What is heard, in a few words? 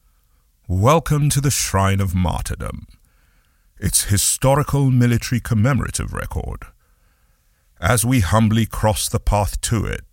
welcoming visitors to Museum (EN MALE); male voice actor